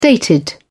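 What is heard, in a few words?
"dated", English, British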